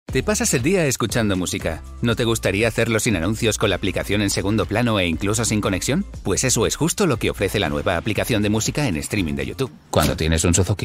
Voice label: spanish commercial; Spain; Spanish voice actor with a warm voice and a broad vocal register going from warm and emotive